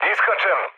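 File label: Serbian bailout mood low, speech; War Thunder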